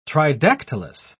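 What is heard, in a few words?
"tridactylous", British English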